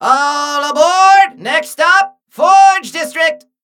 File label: Male Mechagnome Play